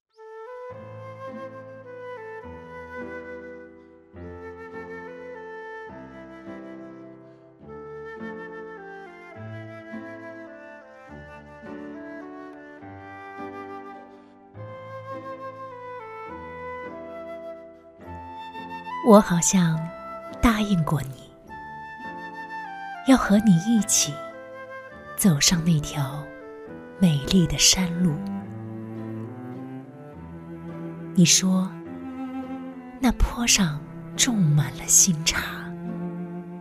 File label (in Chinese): 女配音, 中年（36-54）, 普通话, 旁白/自白/独白配音; 少年的你席慕蓉